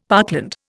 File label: "parkland", Indian; Dictionary